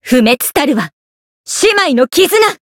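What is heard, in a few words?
Voice variable chant, Japanese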